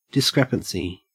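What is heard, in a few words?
"discrepancy", Australian English; pronunciations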